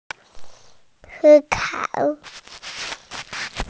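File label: "think", Mandarin Chinese, female; si1kao3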